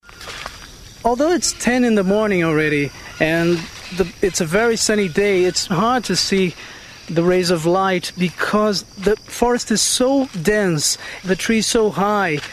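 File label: Brazilian English; Portuguese-Brazilian, Male, Home Studio, 30s-50s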